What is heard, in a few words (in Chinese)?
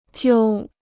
"揪", Chinese